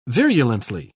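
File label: "virulently", English, British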